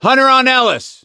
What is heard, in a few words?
hunter pounced, voice; ellis; Left 4 Dead 2; survivor; gambler